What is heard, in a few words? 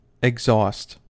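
"exhaust", US English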